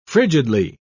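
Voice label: "frigidly", British English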